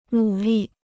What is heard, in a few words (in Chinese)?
"努力", Chinese